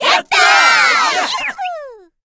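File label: voice gacha result, Japanese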